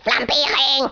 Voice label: "Fireball", Danish